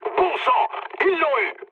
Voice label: aircraft destroyed mood low, speech fr